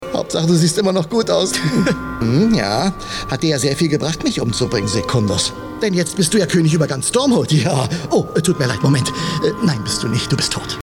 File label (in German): Schauspiel Lebendig